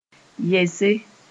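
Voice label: "yɛse", Mossi; be there standing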